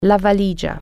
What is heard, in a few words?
suitcase, italian